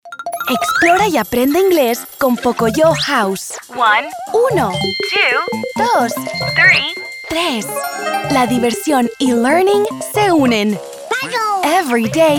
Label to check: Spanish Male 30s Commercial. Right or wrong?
Wrong